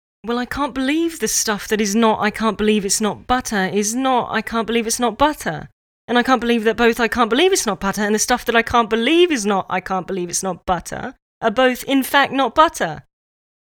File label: Voice Reel Character